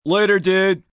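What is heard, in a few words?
"Later Dude" male; Goodbyes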